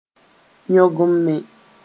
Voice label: "yõgenme", moore

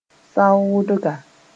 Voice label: "zaoodga", moore

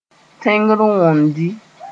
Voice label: "tẽngr wondɩ", Moore

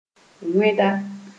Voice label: "wẽda", moore

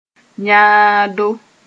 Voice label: "yãado", moore; intestines

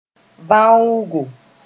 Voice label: "vãoogo", moore

